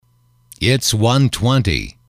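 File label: "it is one twenty", English, male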